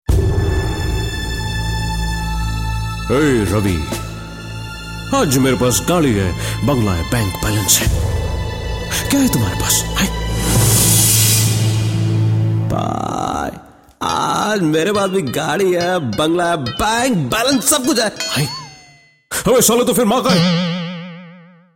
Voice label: radio